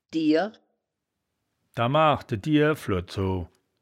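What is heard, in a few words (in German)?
"diehr", wittlicher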